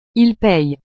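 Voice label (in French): "il paye", French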